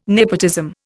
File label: "nepotism", Indian